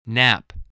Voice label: "nap", voice